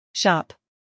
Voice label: "shop", English